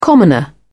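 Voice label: "commoner", British English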